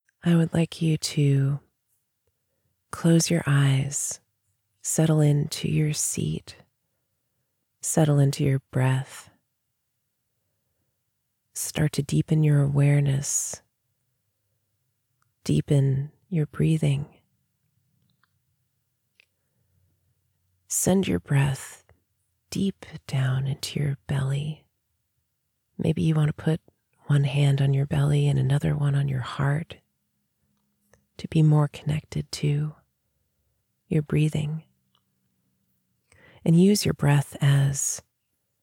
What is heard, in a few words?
body scan guided meditation